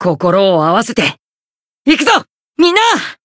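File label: Voice limitbreak, Japanese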